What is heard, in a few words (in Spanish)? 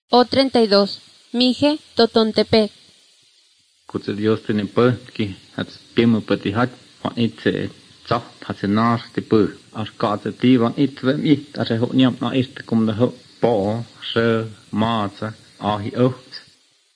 spanish mexico